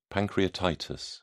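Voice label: "pancreatitis", British English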